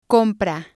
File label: "compra", spanish